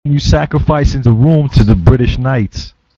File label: lament british knight